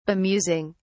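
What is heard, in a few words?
"bemusing", British English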